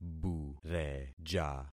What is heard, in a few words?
"bureja", concat syllables; Affixing experiment